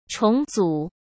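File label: "重组", Mandarin Chinese; chinese flashcards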